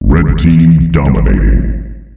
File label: red team dominating, announcer male; Unreal Tournament; ut2004